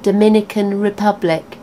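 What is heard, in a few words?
"dominican republic", British English; gcompris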